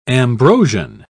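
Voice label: "ambrosian", English, British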